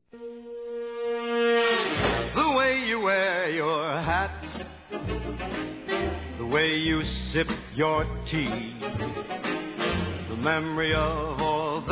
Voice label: BODAS OURO M, Telemensagens; Bodas e Casamento

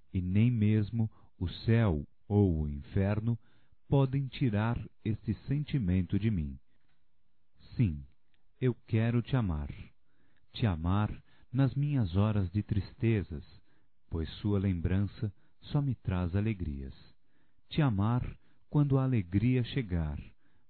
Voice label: Te Amarei Masculino, Voz; Telemensagens; Só Voz; Telemensagens 2017

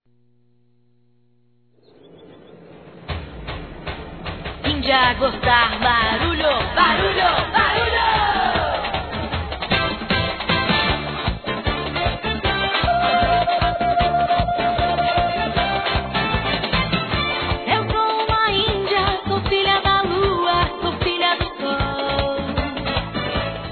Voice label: Aniversário Afilhada Masculino; Telemensagens 2017; Aniversários; Aniversário Infantil

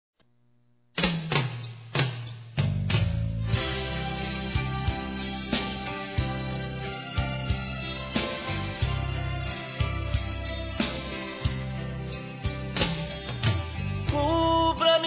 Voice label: Otimismo Feminino; Telemensagens; Telemensagens 2017

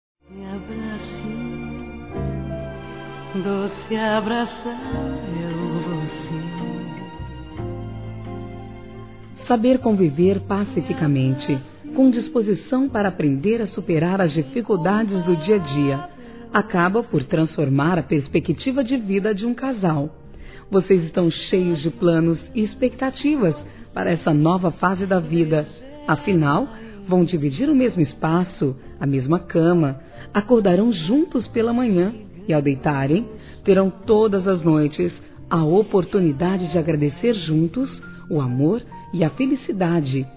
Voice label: Casamento Feminino; Telemensagens; Parabenização

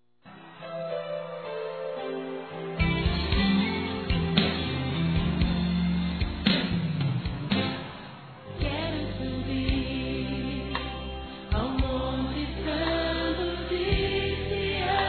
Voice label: Aniversário Neutro Evangelico Masculino

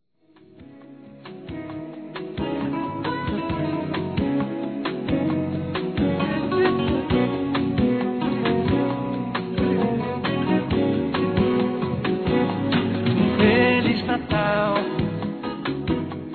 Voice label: NATAL NEUTRO PLURAL M; Telemensagens; Natal e Ano novo

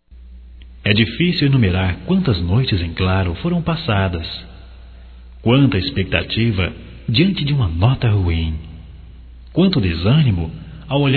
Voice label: Formatura Masculino

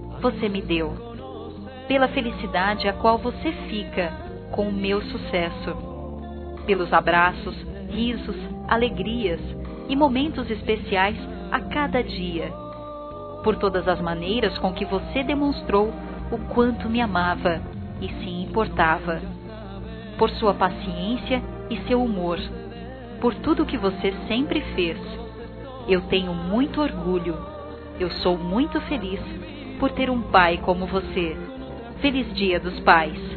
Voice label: EU AGRADEÇO, fem; Telemensagens 2017

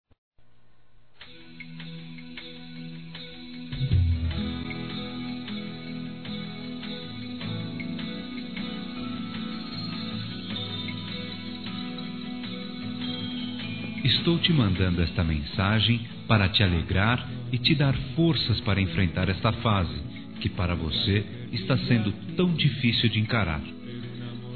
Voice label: OTIMISMO M

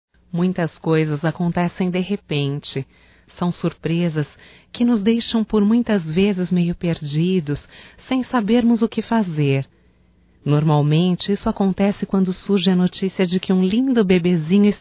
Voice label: GRAVIDEZ (F); Românticas

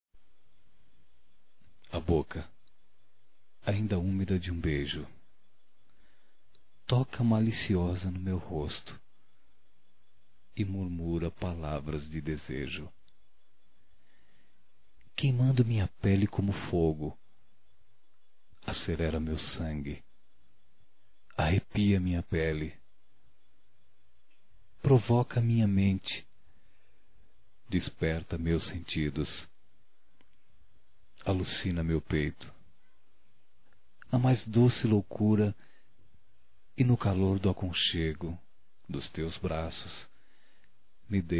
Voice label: Romantica Masculino; Românticas

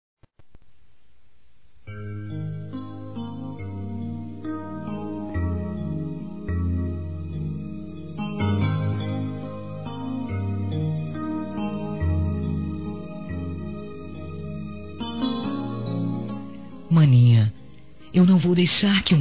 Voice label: Desculpas para Irmã-f; Telemensagens; fem; Telemensagens 2017

